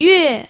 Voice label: "yue4", pinyin

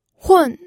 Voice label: "hun4", pinyin audio

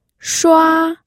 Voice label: "shua1", pinyin

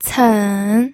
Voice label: "cen3", pinyin

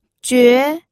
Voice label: "jue2", pinyin

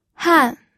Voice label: "han4", pinyin audio

